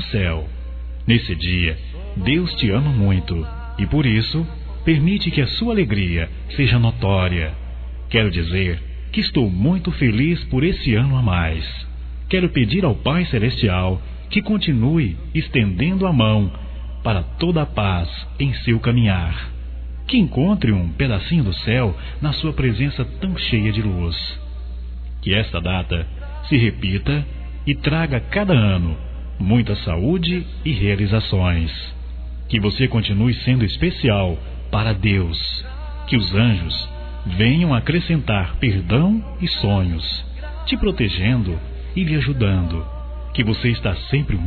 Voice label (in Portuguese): Aniversário Neutro Evangelico MASCULINO